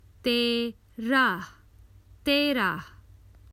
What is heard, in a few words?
Common Hindi Phrases for travel Thirteen